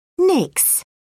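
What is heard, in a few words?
"nix", British English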